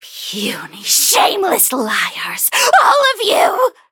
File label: touch, en; cookie run